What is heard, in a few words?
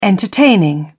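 "entertaining", English, British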